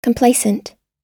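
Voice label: "complacent", American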